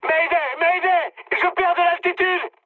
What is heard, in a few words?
aircraft destroyed mood high, speech fr